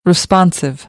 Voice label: "responsive", American English; ɑː - ɒ